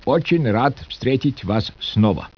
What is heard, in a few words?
speech russian